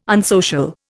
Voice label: "unsocial", Indian